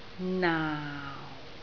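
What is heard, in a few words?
"naau4", Cantonese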